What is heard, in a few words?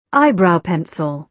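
"eyebrow pencil", British English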